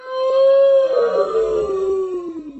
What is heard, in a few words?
slowed oof; roblox